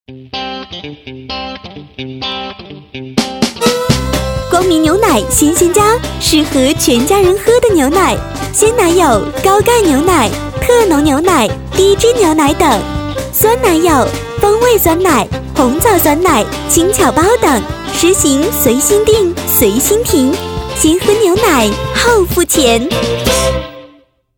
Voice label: 广告 牛奶; 光明牛奶新鲜家

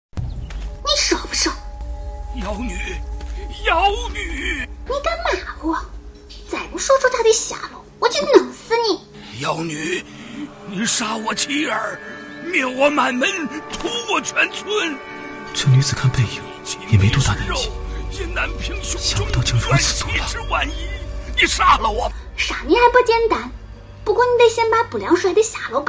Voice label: 方言; 蚩梦; 不良人

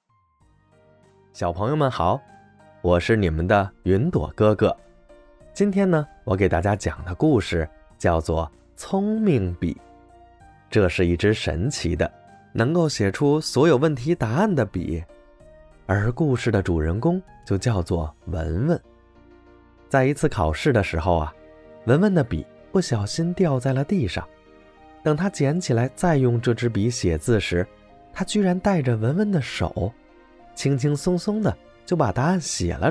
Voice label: 儿童读物

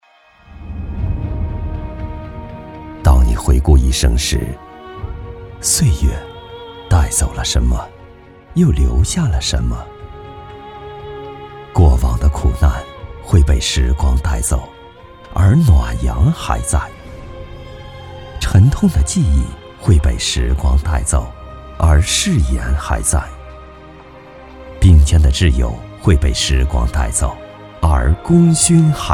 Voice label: 公益片; 致敬劳动者

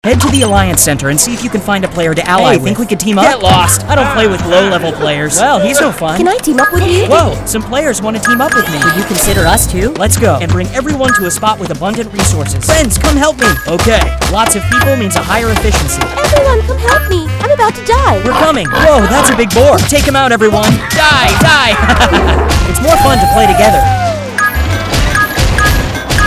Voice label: 男美式英文游戏招募玩家

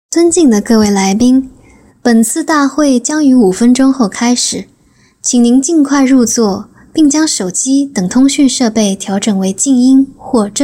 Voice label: 广播 晚会 大会 提醒 中英

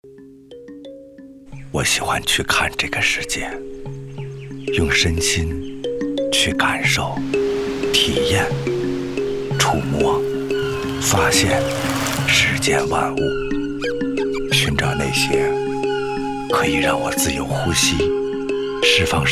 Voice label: 广告时尚内敛; 看世界

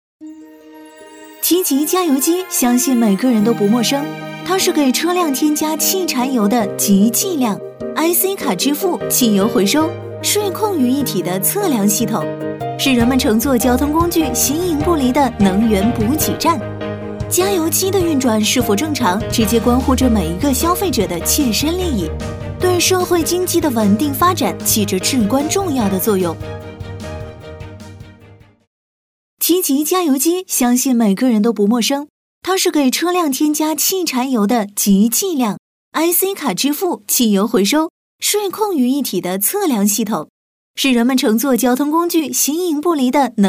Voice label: 加油机 女

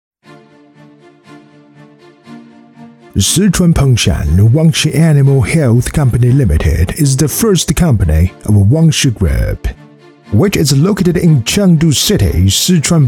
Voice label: 英文宣传 低沉稳重 企业介绍 男; 天天好声音

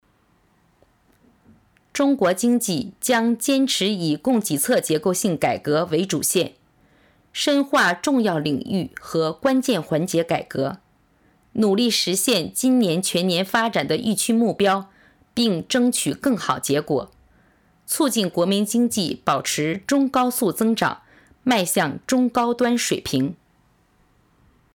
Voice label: 新闻类

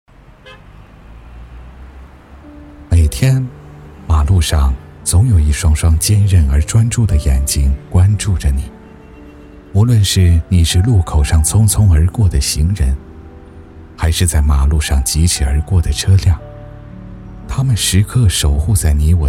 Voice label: 交警形象片; 杭州亚运会; 央视

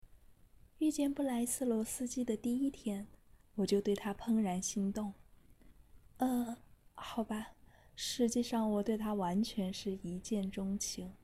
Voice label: 有声书 中文; 怦然心动

